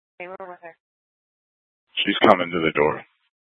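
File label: Scottsdale Police South Dispatch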